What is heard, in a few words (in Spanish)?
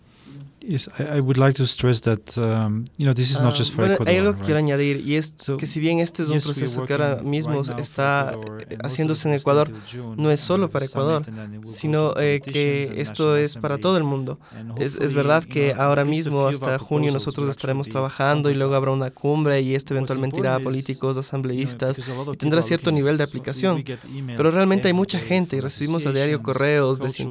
castellano